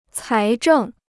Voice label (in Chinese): "财政", Chinese